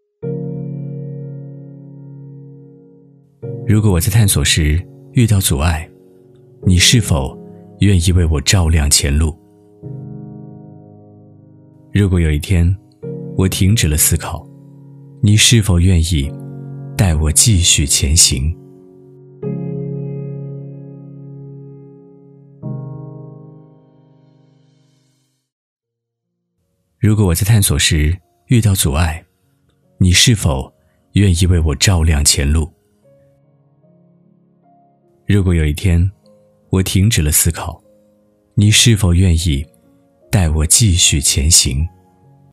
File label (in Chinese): 男 旁白独白 直扣人心; 三环集团